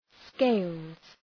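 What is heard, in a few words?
"scales" English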